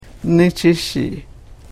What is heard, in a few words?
"nanichishiih", Karuk